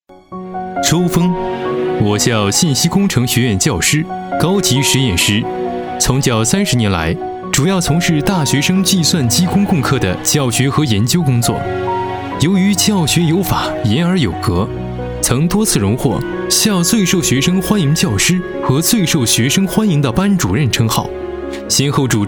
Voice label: 人物专题配音; 某大学获奖教师

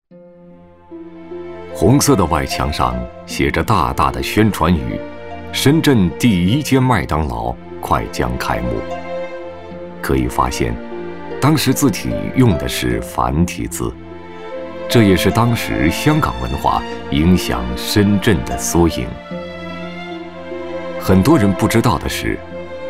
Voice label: 男 纪录片 企业历史; 麦当劳

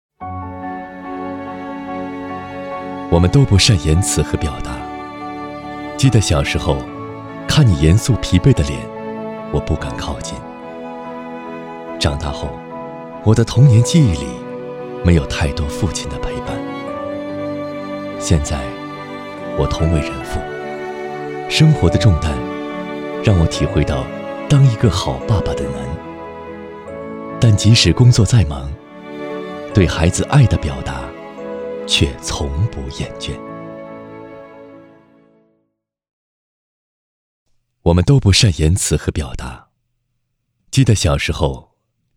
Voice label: 男 中文 自述旁白; 爱的表达

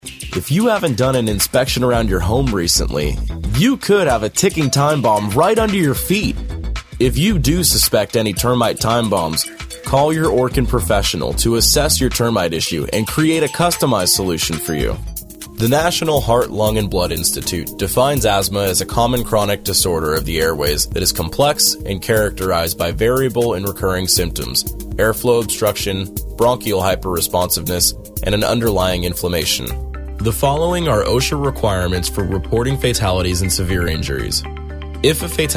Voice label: 外籍英男, 叙述

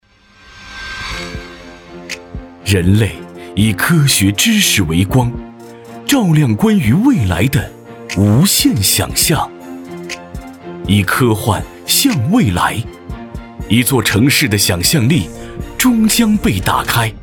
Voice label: 男 宣传片; 科幻大会

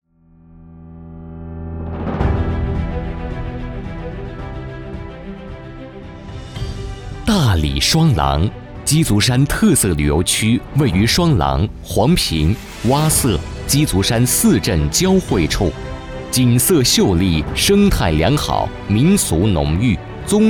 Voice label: 男 专题 旅游 舒缓 感情; 大理旅游

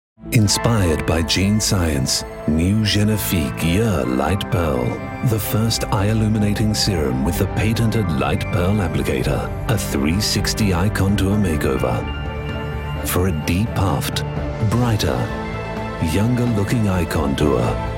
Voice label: 外籍英男 广告; 兰蔻大眼精华

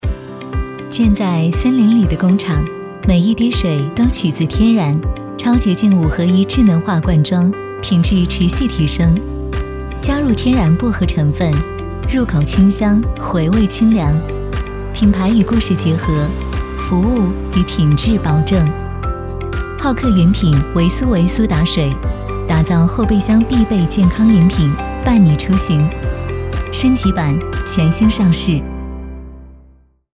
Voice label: 女 广告 苏打水; 唯苏维

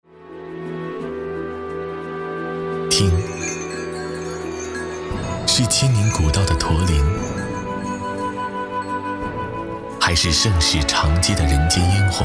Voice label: 男, 交通广播; 武汉交通广播; 行走的耳朵; 中国篇

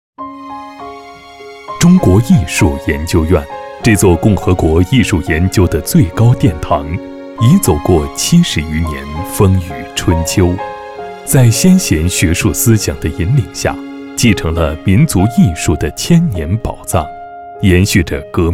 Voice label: 男 纪录片; 中国艺术研究院研究生院

